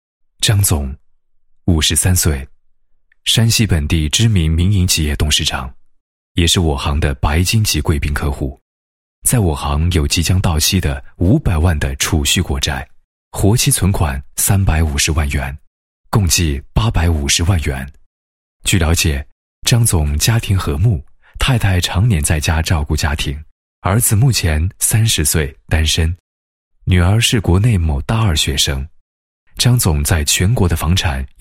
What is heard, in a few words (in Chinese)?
男 人物介绍 旁白; 某银行优质潜力私人银行客户介绍旁白